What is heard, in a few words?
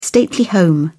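"stately home", British English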